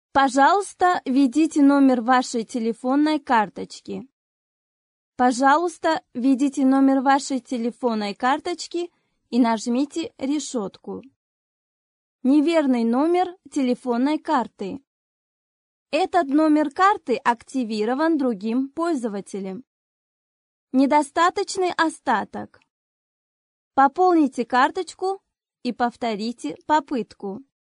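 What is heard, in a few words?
Russian, female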